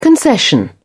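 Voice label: "concession", British English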